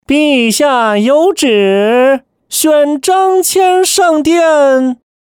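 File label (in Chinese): 角色 男 太监; 角色-男27-太监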